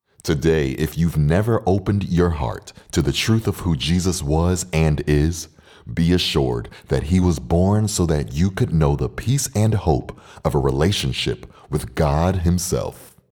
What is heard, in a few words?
NARRATION; Behold; choir music